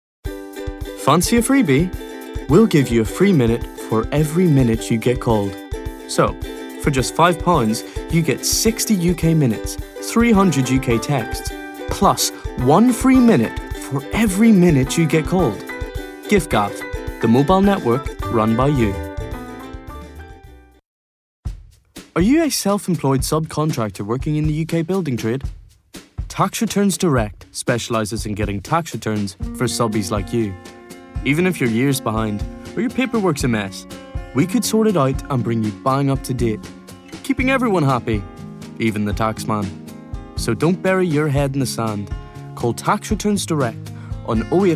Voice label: Commercial Voice

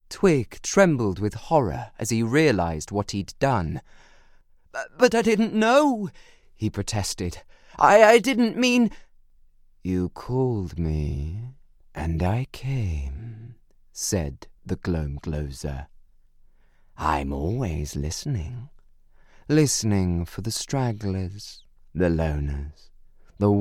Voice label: Audiobook

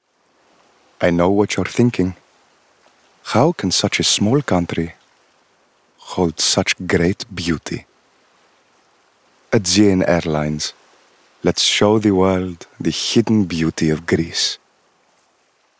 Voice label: Greek

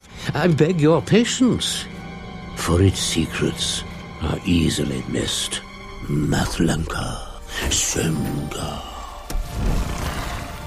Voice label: Acting Reel

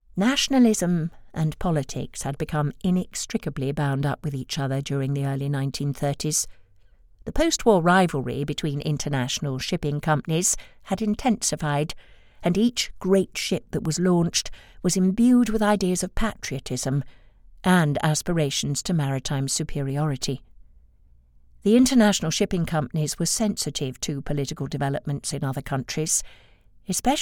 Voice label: audiobook nonfiction

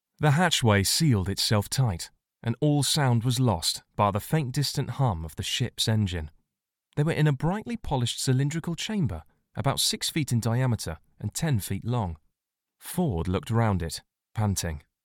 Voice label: Audiobook

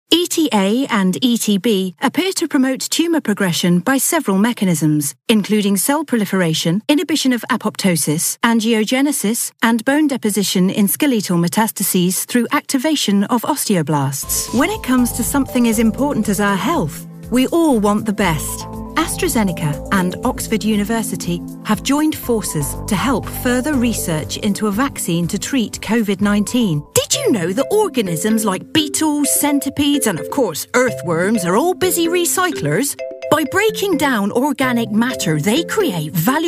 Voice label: Corporate

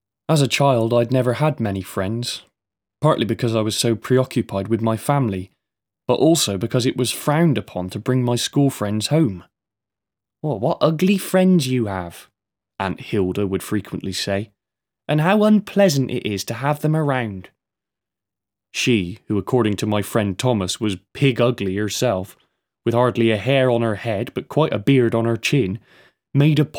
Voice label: Sample Bristol

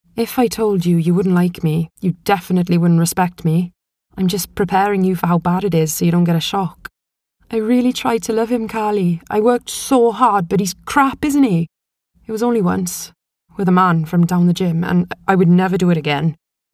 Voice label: Welsh South

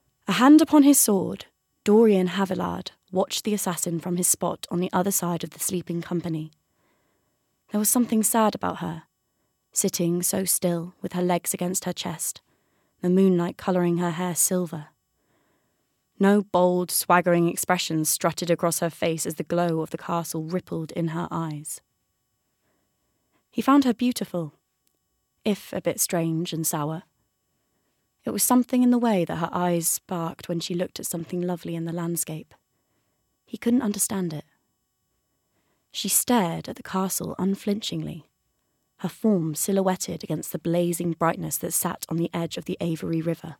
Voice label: Narrative

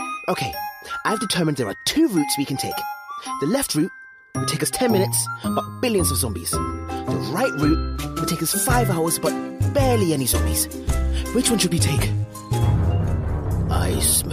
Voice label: Animation

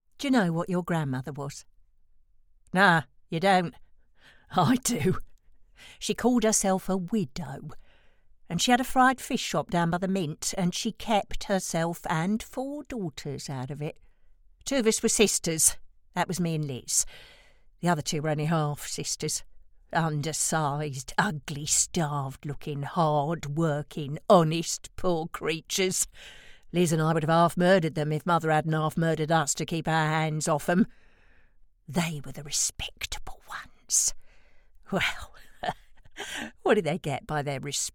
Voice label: audio drama

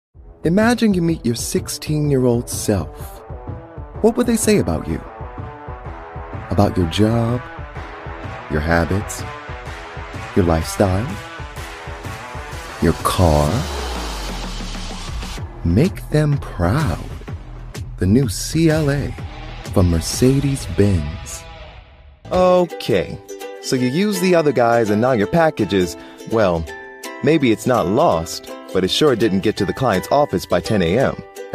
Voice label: Commercial

